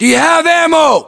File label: status check, us; localized english; battlechatter